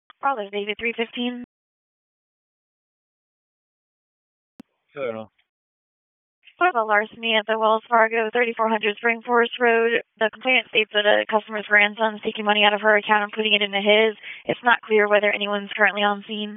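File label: Police Northeast District Disp, radio; Raleigh; p25; wake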